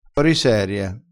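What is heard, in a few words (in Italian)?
"foriserie", vocabolario dialettale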